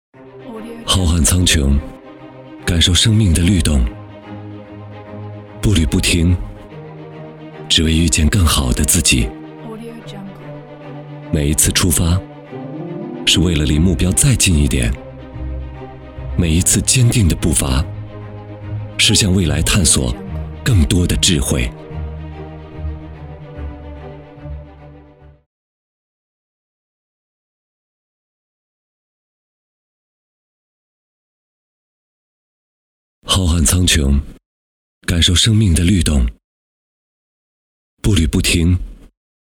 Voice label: 男 年轻走心

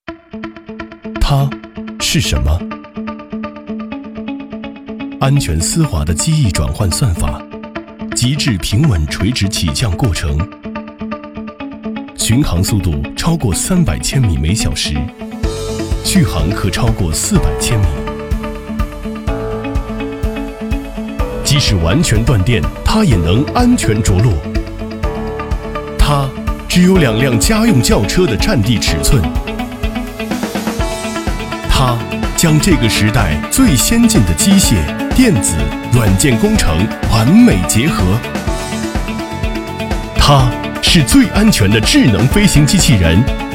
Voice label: 男,自然科技感,飞行器广告

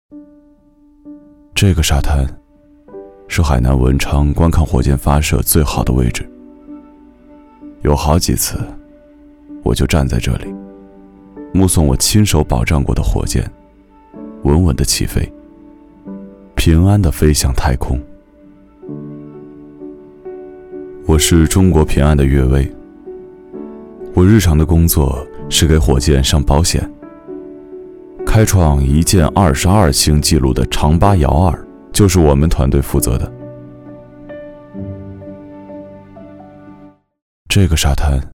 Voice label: 男,素人,独白,中青年

